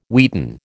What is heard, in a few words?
"wheaten", American